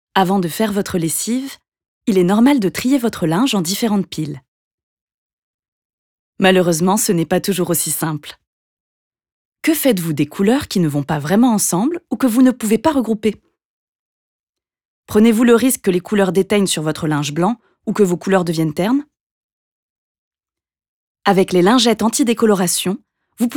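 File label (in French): AUDIOGUIDE, comédienne; (maquette) - Paul Klee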